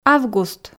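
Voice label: "август", Komi, female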